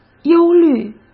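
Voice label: "忧虑", Mandarin Chinese; hsk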